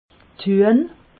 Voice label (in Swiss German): "huehn", alsace